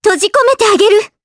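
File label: voice Skill, voices heroes, Japanese; Kirze; kings raid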